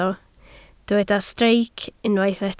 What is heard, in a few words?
"streic", Welsh